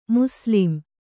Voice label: "ಮುಸ್ಲಿಂ", Kannada